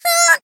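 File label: Voice damaged, Japanese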